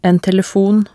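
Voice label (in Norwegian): "en telefon", Norwegian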